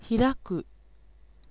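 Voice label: Japanese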